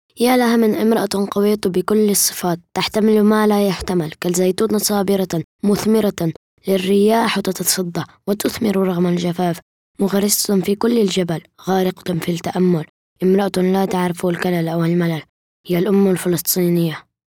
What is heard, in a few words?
arabic m kid narration; voice demos